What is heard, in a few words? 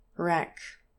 "wreck", English pronunciation